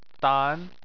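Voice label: "dan" second tone, Chinese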